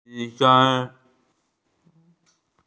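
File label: "doctor", Mandarin; yi1sheng1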